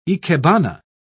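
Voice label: "ikebana", English, British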